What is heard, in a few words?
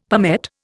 "palmette", Indian; Dictionary